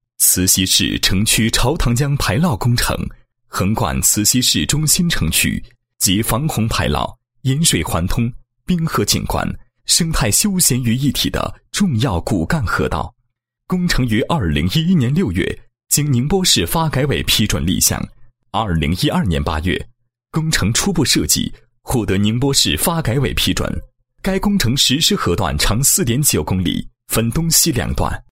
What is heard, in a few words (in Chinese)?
男 工程解说, 创建全国水利建设工程文明工地